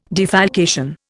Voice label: "defalcation", Indian

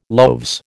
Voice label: "loaves", American

